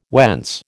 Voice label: "whence", American

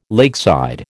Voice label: "lakeside", American

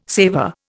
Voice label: "saver", Indian

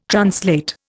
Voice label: "translate", Indian

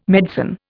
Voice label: "medicine", Indian

